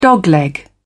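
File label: "dogleg", British English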